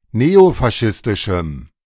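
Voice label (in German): "neofaschistischem", German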